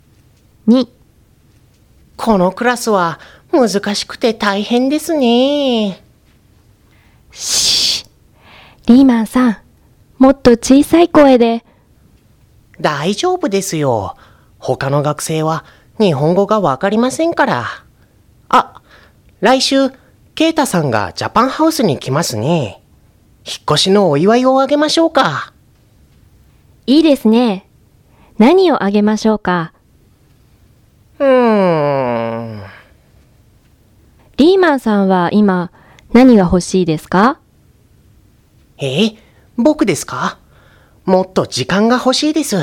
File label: Conversation